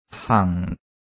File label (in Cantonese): "hang4", cantonese